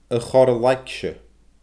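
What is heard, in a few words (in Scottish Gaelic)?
"a chorra laigse", Scottish Gaelic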